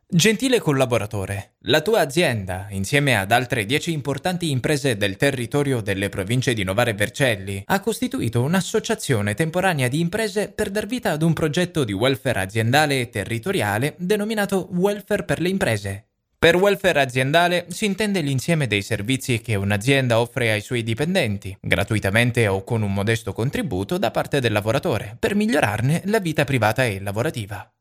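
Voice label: Corporate Promotion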